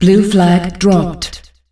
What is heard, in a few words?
"Blue Flag Dropped", announcer female; Unreal Tournament; ut2004